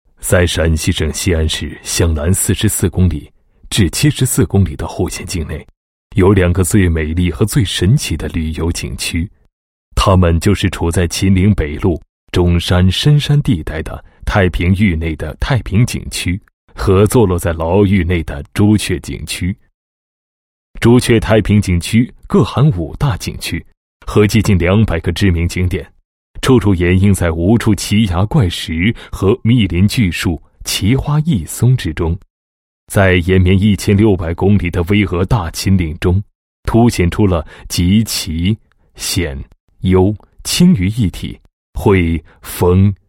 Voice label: 男 旅游宣传 景区宣传; 陕西省西安太平景区 朱雀景区宣传

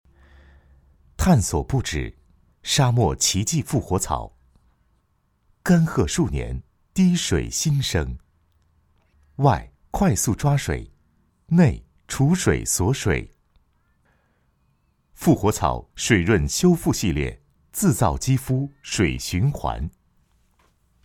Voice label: 企业专题, 国语男声配音, 温情; 复活草

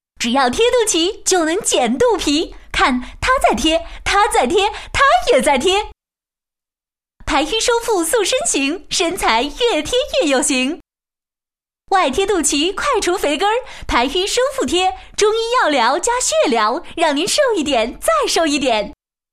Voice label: 电视购物, 国语女声配音; 瘦身贴

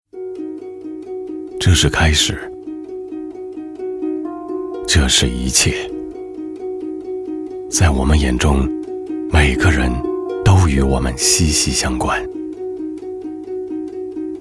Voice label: 广告, 中国人寿

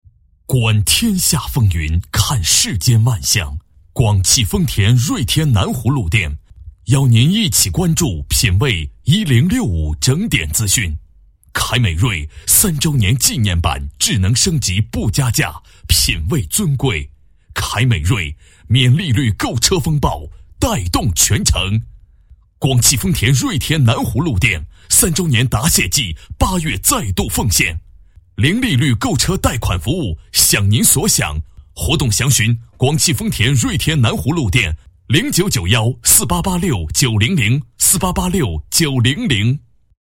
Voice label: 男40 品牌广告, 国语男声配音; 广汽丰田

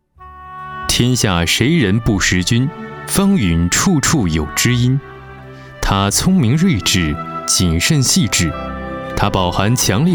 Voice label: 男 专题 人物; 陈芳允

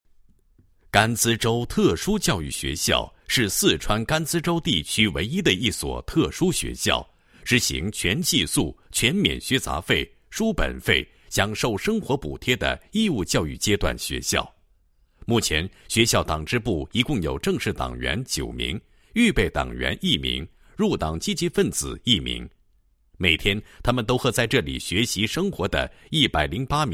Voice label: 男 学校专题, 特殊教育学校介绍; 甘孜州

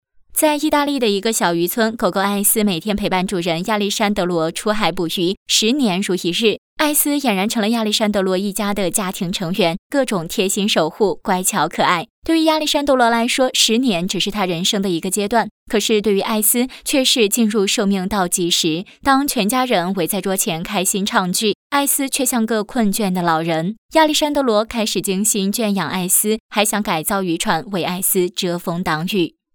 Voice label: 女 影评; 爱犬情深

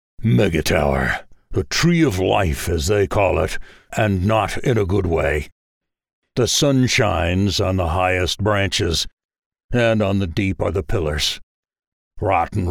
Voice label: 外籍英男, 角色, 深沉力度

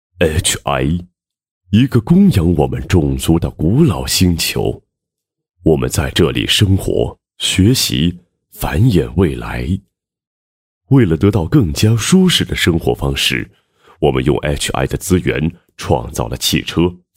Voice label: 男 游戏 旁白配音; 超能计划

